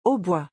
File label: "hautbois", French; vocabulary; musical instruments